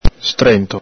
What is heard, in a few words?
"strento", Veneto